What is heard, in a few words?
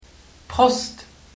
cheers, luxembourgish